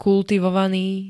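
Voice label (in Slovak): "kultivovaný", Slovak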